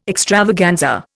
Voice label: "extravaganza", Indian

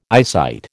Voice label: "eyesight", American

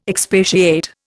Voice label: "expatiate", Indian

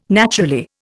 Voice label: "naturally", Indian

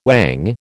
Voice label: "whang", American

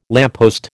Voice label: "lamppost", American

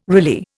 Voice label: "Riley", Indian

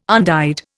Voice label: "undyed", Indian

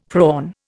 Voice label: "prawn", Indian; Dictionary

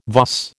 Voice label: "vas", American

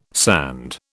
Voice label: "sand", British

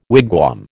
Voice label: "wigwam", American

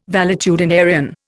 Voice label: "valetudinarian", Indian

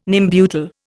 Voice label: "Nembutal", Indian

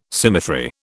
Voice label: "symmetry", British

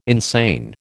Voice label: "insane", American

